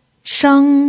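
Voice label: "sheng", first tone, Mandarin Chinese pinyin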